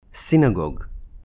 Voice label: "Synagogue", Hebrew